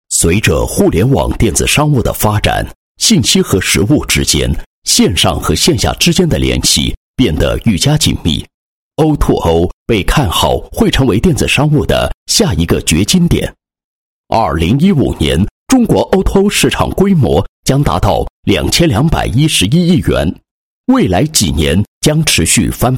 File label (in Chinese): 男-介绍-打工者歌唱大赛 梦想的正能量, 配音案例